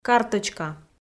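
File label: "карточка", Komi, female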